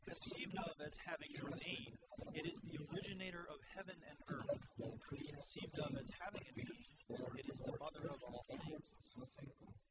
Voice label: male inst sim; SASSEC07